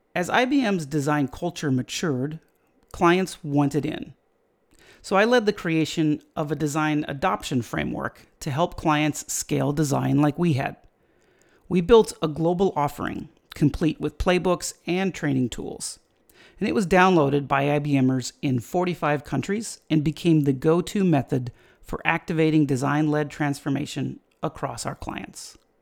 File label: voicetrack; IBMDAF